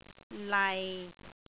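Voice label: "lai6", Cantonese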